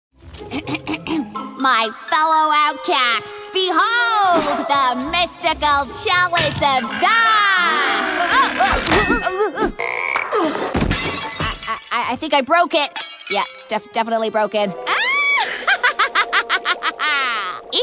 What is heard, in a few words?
english british animation; locutora británica, originaria de Bristol